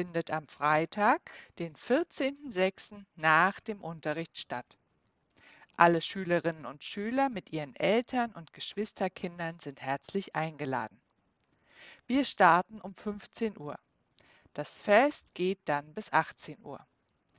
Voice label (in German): durchsage frau; hoerverstehen; sprachfoerderzentrum